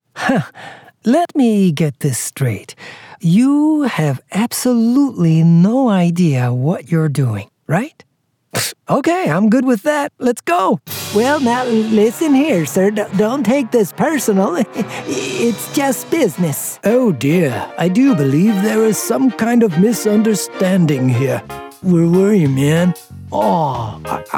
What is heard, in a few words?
character